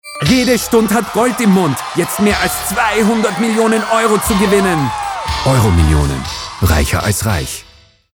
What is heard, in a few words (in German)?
WERBUNG; EUROMILLIONEN